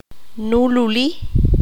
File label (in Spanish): "liso", Q'anjob'al